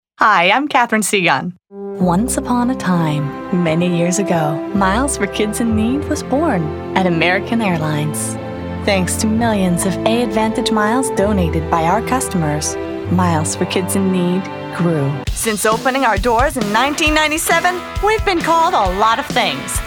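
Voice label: Commercial English; TaDa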